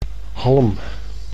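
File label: "halm", Dutch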